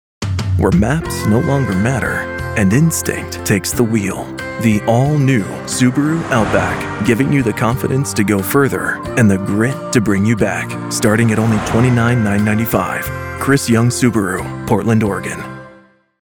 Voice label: Automotive, automotive voiceover; Reflective and Adventurous; Chris Young Subaru; Automotive Voiceover Styles That Fit the Road Ahead